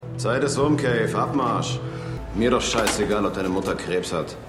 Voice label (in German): Haeftling Telefon; Truth Be Told